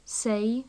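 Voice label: "say", British English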